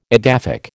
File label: "edaphic", American